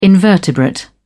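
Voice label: "invertebrate", British English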